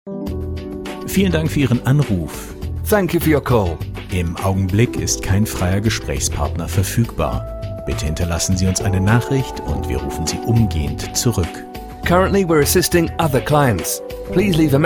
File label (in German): warteschleifen ende ansage deutsch englisch